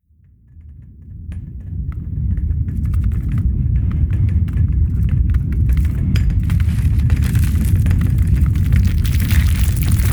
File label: Drill Broadcast Sound Effects; ShakeOut